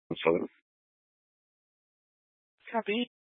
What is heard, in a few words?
Mesa PD Dispatch